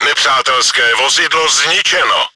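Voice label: enemy killed by player, чешской озвучки; World of Tanks; Nepřátelské vozidlo zničeno!; Вражеская машина уничтожена!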